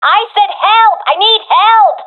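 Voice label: request help, professional female; vo; Counter Strike Model Pack; CS:GO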